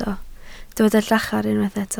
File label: "llachar", Welsh